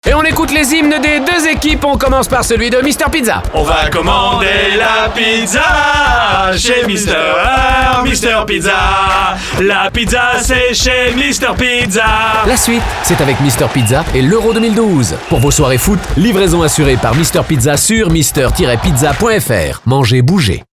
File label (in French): radio pub spot